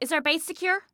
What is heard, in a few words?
Team Base Secure, Female; Female 02